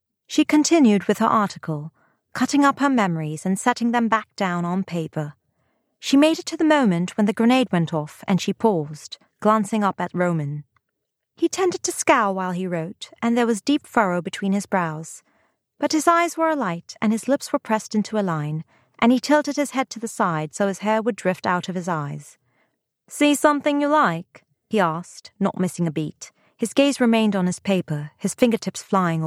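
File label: Romance British Accent male female dialogue, audiobooks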